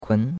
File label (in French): "kun2", chinois; Cours-de-Chinois